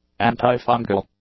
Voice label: "antifungal", English; adjectives